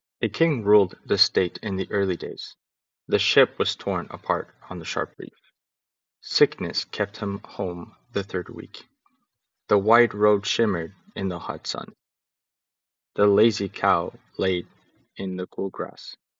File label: call recoding buds; earbuds